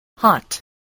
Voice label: "hot", voice spelling